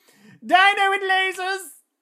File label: battle chatter